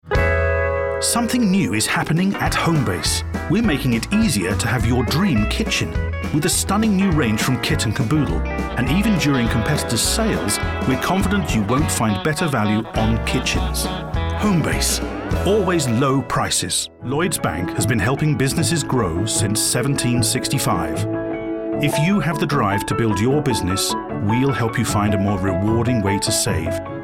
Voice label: Commercial Reel